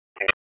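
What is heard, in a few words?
Police Northwest District Tactical 1, p25; Raleigh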